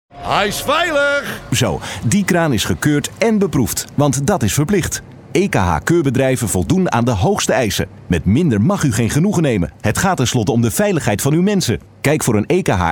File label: locutor holanda, dutch male voiceover